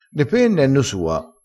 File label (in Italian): "sua", crespinese